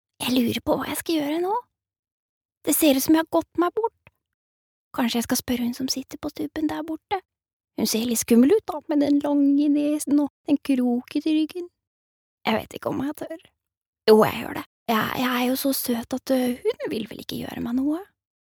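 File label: Norwegian dub character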